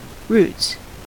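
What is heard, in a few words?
"roots", US English; pronunciations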